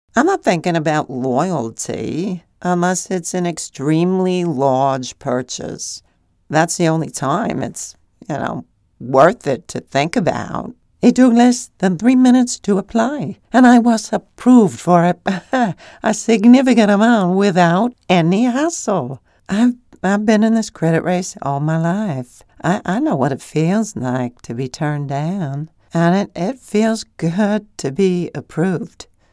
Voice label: CUSTOMER TESTIMONIALS, Conversational, Warm, Storyteller Female